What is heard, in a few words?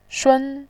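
"shun", Mandarin Chinese, first tone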